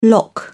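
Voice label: "lock", English, British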